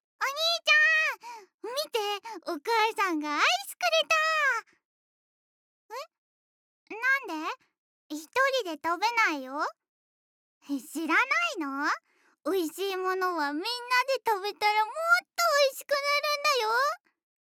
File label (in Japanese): 元気な妹